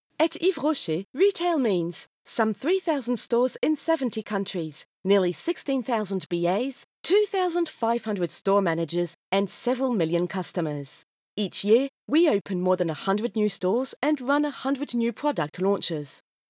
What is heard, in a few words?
Corporate; Yves ROCHER